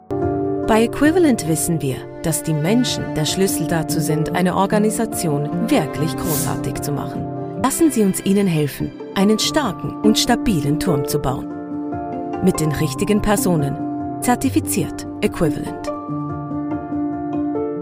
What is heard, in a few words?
Promotion company German Female Voiceove